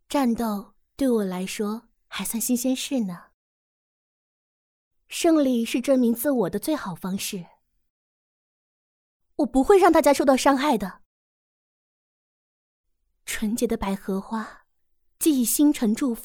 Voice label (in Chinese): 游戏角色温柔少女; 莉莉欧